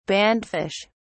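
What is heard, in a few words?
"bandfish", British English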